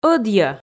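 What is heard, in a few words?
"ӧдйӧ", Komi, female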